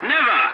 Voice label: voice message no, english; War Thunder